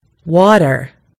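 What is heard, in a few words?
"water", British English; gcompris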